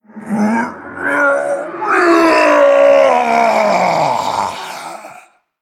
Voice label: Male Orc Death